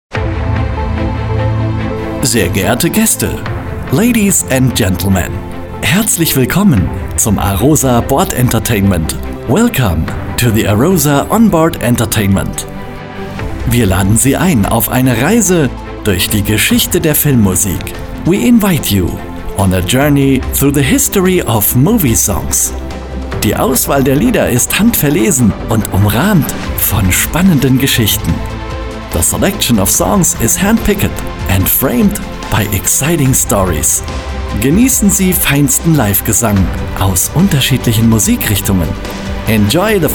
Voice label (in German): Voice Demo; A-ROSA Entertainment